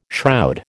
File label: "shroud", American